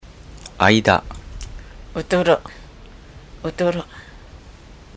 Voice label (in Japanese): あいだ, Ainu; mukawa